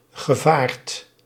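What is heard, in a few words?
"gevaard", Dutch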